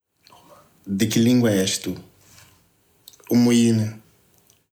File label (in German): portugiesisch mosambik